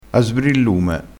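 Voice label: "a sbrillume", Italian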